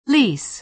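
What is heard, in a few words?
"lease", American English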